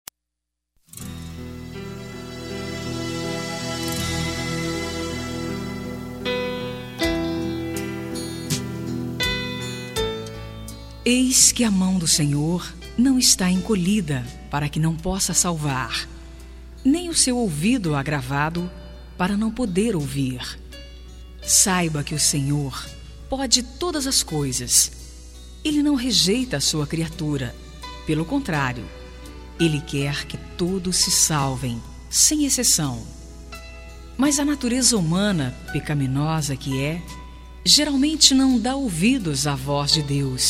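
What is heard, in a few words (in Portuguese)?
Evangélica Mensagem pra Amizade, female, telemensagens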